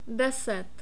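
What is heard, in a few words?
"deset", Czech, male; short vowels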